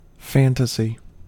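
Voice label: "fantasy", American English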